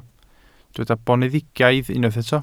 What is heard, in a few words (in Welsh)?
"boneddigaidd", Welsh